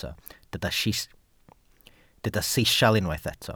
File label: "sisial", welsh